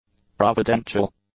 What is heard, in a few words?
"providential", english; adjectives